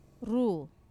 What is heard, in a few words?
"ru" head, Wamesa